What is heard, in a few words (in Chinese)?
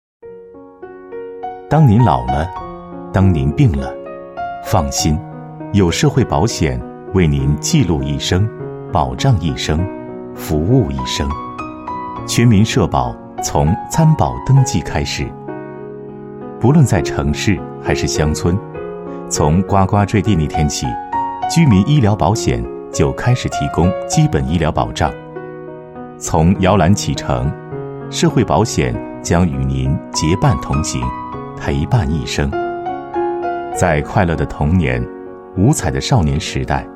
男-社保宣传; 社保宣传推广